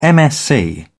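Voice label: "msc", British English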